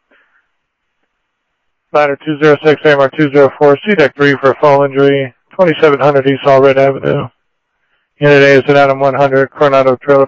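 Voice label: Fire Department, scanner audio trunk; Thompson Peak; M-G FD C1; Mesa-Gilbert-AJF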